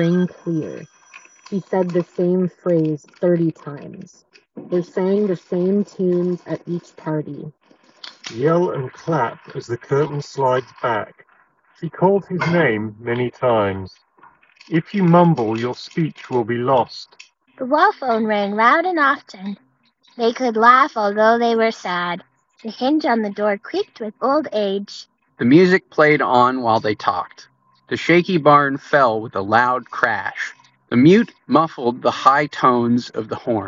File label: Samsung Galaxy Buds 2 Pro Office microphone sample